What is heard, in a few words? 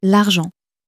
"argent", french